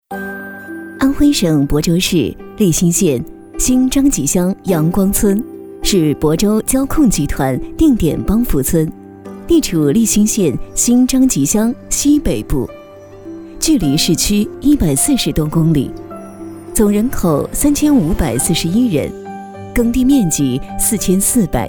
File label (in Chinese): 女 专题; 亳州FN